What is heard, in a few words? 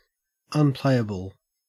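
"unplayable", Australian English; pronunciations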